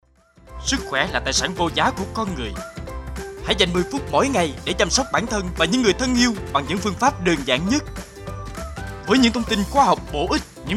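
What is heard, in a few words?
Vietnamese Vietnam male sales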